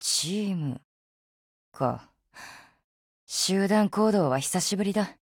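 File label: FORMATION Japanese; ColtWalker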